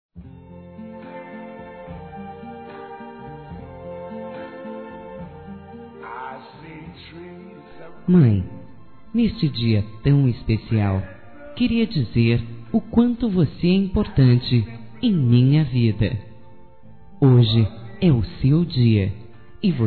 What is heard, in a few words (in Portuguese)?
MÃE F, Telemensagens, Dia das Mães; Telemensagens 2017